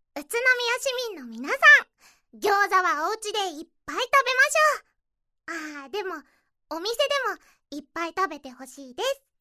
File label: narration